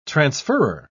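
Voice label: "transferrer", British English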